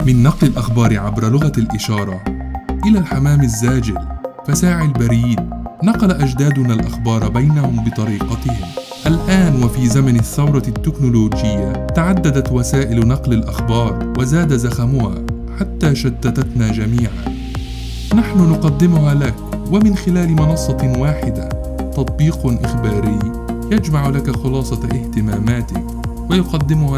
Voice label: Classic Arabic Narration Voice Overs

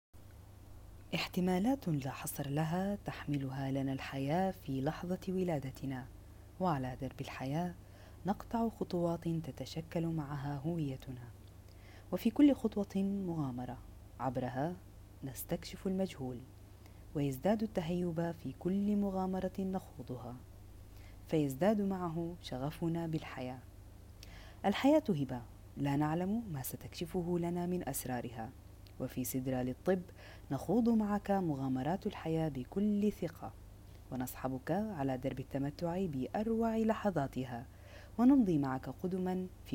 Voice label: Arabic IVR On Hold Voicemail Greetings

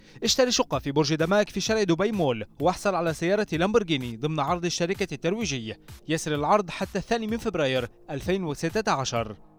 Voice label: Arabic Narration Voice Overs

